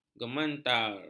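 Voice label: "gementar", Malay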